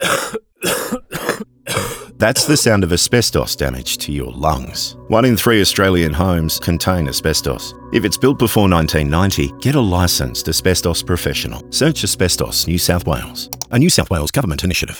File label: RADIO English; asbestos